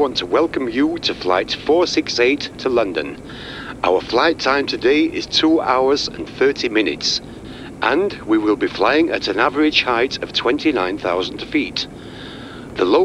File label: Announcement; Training